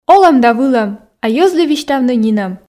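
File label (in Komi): олӧм да вылӧм а йӧзлы висьтавны нинӧм, Komi, female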